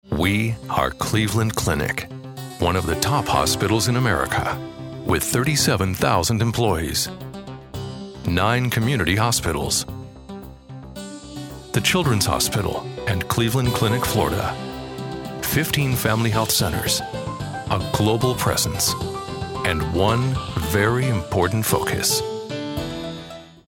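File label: us corporate presentation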